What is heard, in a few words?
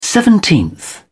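"seventeenth", British English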